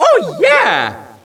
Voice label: oh yeah, Echo inside caves, underground; DS; ML BOWSERSINSIDE; Mario